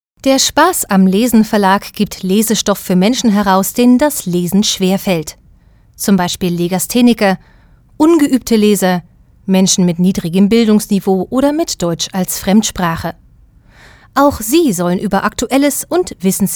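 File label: German, female, corporate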